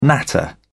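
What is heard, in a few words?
"natter", British English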